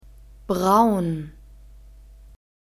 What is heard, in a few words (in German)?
"braun", German; diphthongs